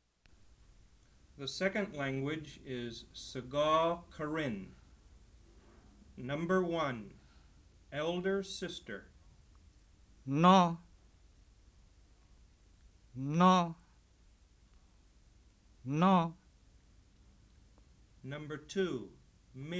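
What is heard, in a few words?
Sgaw Karen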